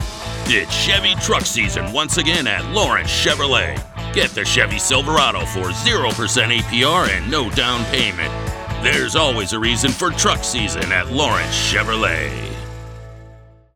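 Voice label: Chevy, Professional Male Voice Over Talent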